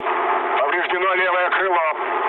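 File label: Russian left wing mood low, speech; War Thunder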